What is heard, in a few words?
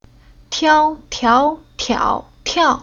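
"Tiao", chinese syllable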